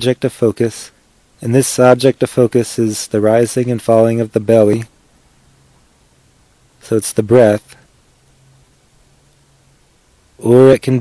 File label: mindfulness meditation